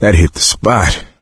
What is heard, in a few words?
pain relief pills, coach, survivor voice; video game; source engine; left 4 dead 2